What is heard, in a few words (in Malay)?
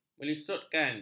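"melisutkan", Malay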